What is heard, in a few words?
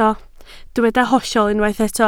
"hollol", Welsh